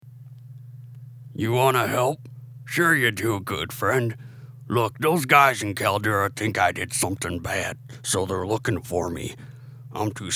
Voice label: male orc audition, voice acting

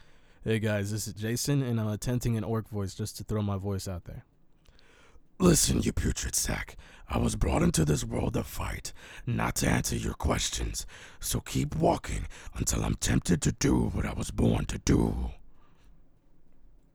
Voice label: orc, voice auditions

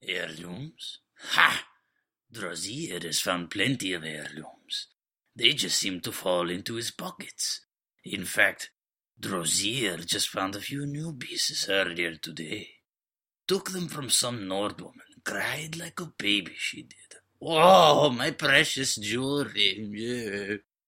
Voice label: khajiit voice actor audition; drozhiir

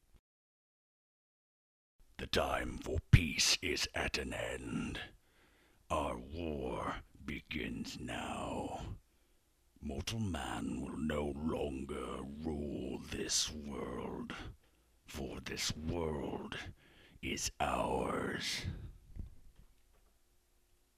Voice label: dark evil track

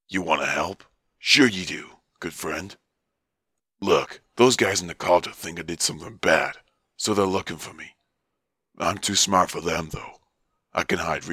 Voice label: male orc, voice auditions

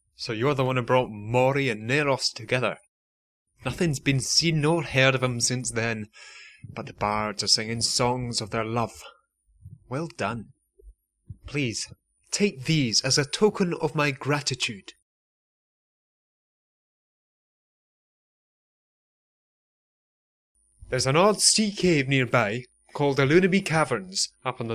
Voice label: breton audition

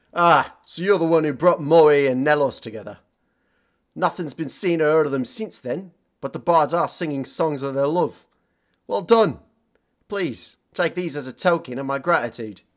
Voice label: breton male cockney

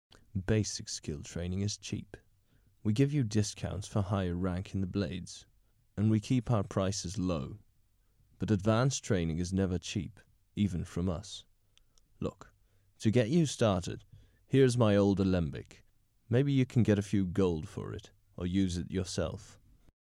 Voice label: high elf voice audition; skywind; tyermaillin

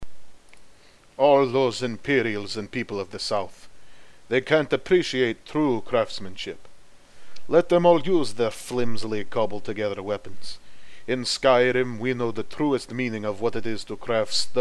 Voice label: nord scandinavian

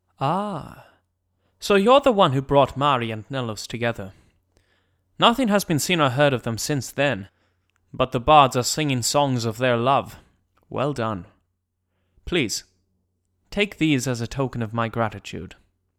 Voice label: breton voice sample

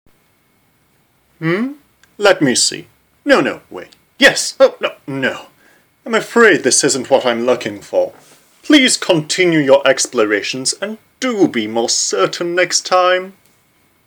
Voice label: high elf voice; athellor

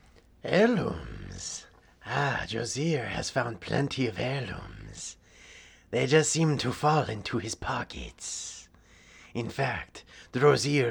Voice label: khajiit male

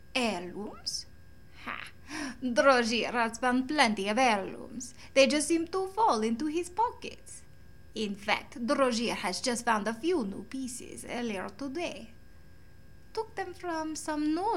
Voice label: khajiit, voice auditions; drozhirr

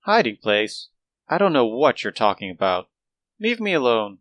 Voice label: hiding place, wood elf voice auditions; fargoth

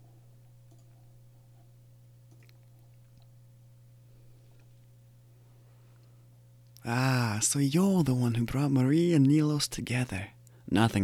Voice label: breton, voice auditions

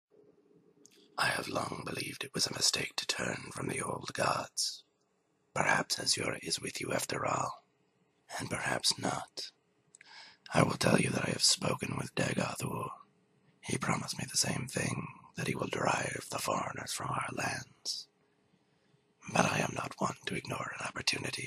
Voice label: dark elf audition, voice acting

